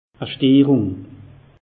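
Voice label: "stehrung", Alsatian; meteo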